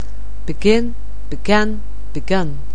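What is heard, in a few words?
"begin", English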